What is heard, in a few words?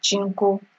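"chinko", Nigerian Pidgin, female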